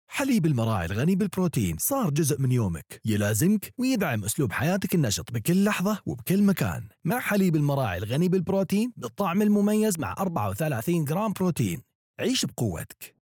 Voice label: male arabic voice over sales